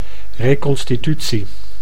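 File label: "reconstitutie", Dutch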